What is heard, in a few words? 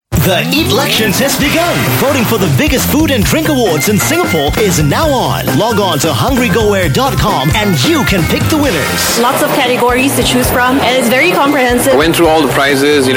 English Singaporean Male